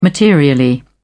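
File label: "materially", British English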